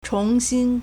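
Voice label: "chóngxīn", Chinese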